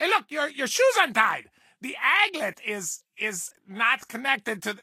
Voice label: English Voice